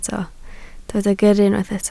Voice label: "gyrru", Welsh